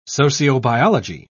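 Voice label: "sociobiology", British English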